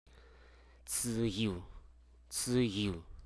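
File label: Hruso Aka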